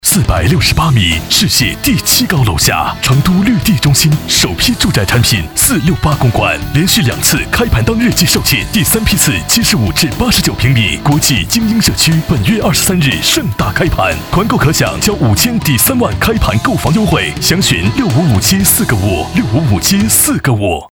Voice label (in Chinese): 男 广告 激情; 地产; 绿地